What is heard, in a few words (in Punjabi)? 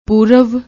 "poorav", Punjabi; ਪੂਰਵ; پُوروَ; noun, masculine; ਪੂਰਬ; adjective; adverb; before, prior to